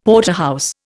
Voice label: "Porterhouse", Indian; Dictionary